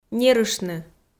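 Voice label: "нерыштны", Komi, female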